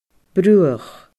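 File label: "bruthach", Scottish Gaelic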